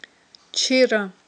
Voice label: "чира", aghul

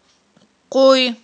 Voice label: "кьуй", Aghul

